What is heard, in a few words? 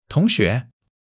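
"同学" Chinese; HSK1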